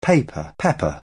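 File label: "pepper", French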